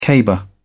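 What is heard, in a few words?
"caber", British English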